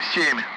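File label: Speech Sound Russian Common Allied Flight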